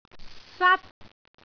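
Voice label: "sat1", Cantonese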